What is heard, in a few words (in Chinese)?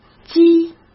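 "鸡", Mandarin Chinese; hsk; chinese-flashcards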